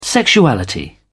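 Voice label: "sexuality", British English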